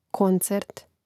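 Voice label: "koncert", Croatian; Mrežnik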